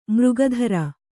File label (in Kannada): "ಮೃಗಧರ", Kannada